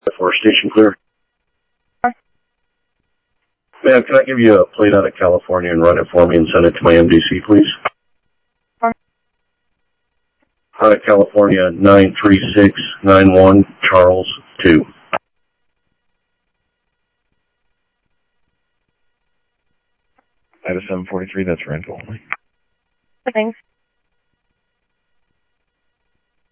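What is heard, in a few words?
Sheriff East County, scanner audio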